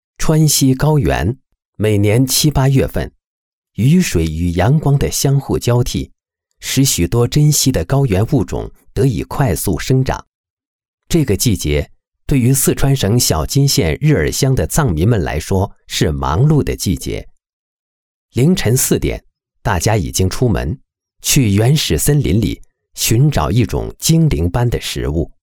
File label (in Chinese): 男 松茸